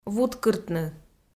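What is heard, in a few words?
"вуткыртны", Komi, female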